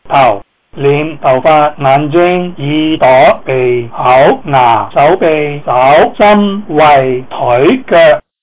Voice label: cantonese body